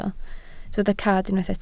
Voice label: "cad", Welsh